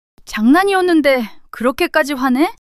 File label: surprise, monologue